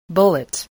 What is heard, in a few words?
"bullet", spelling